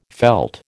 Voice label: "veld", American